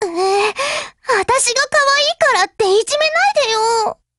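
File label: break, Japanese; GF Alarm; HK45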